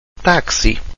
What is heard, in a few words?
"taxi", Portuguese